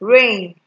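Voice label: "reign", naija, female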